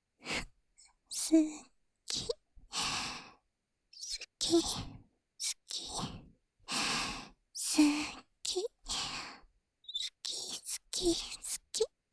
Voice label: 囁き（好き・右）; 言语侵犯

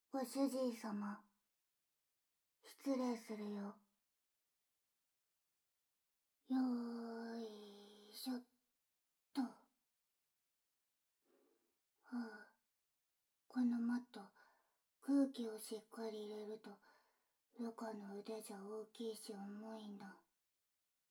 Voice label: お風呂で“マッサージ”してあげる、ご主人様, ASMR; SE無し

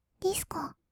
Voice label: ディスコ, kawaii voice; 1200 kawaii voice!!; 音楽ジャンル

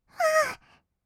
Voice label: "わあ", kawaii voice; ASMR; 色々なワンショット

